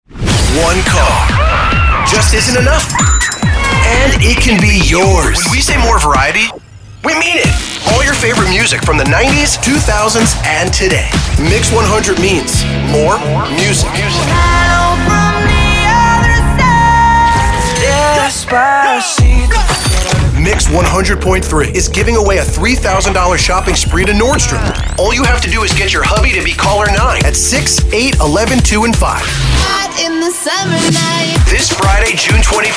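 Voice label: radio imaging male hot adult contemporary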